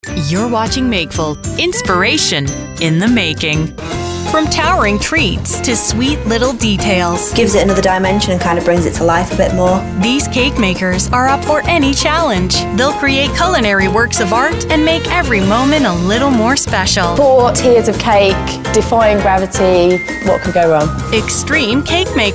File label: TV Promo Imaging and Documentary